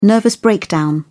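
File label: "nervous breakdown", British English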